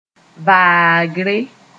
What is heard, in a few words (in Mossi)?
"vaagre", moore